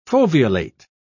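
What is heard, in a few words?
"foveolate", English, British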